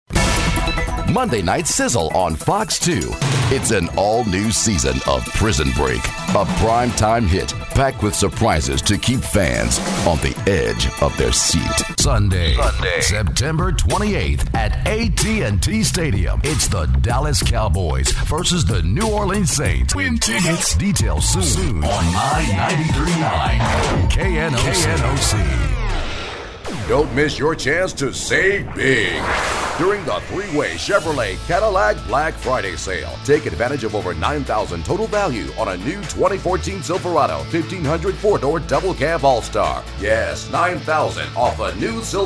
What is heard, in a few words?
Commercial